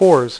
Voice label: "FOURS", Dealer's Speech